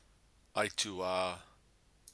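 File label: "aitua", Maori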